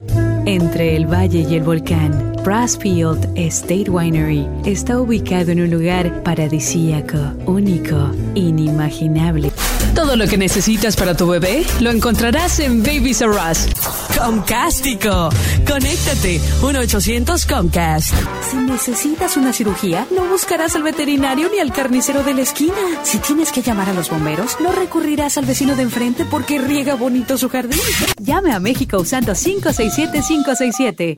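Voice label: Spanish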